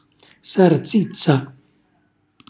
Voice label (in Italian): "sarzizza", Calabrese; cucina calabrese